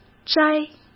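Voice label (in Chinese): "摘" Mandarin Chinese; hsk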